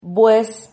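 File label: "bues", Spanish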